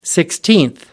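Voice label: "sixteenth", English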